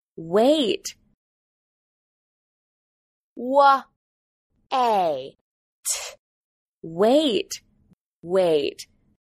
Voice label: "weight", English

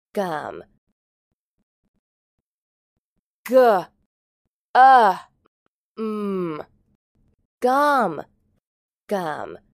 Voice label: "gum", English

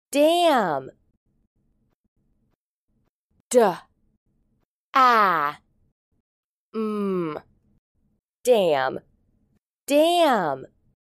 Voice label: "dam", English

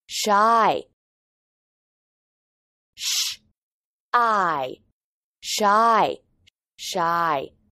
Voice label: "new", "shy"

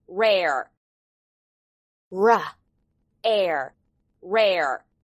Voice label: "rare", English

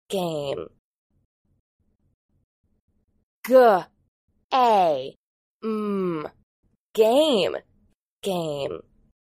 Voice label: "game", English

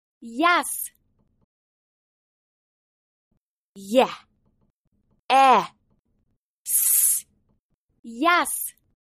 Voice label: "yes", English

